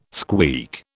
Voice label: "squeak", British

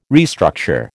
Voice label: "restructure", American

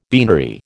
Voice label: "beanery", American

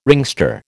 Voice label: "ringster", American

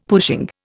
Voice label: "pushing", Indian; Dictionary

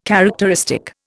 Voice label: "characteristic", Indian

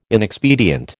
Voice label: "inexpedient", American

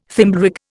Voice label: "Thimblerig", Indian

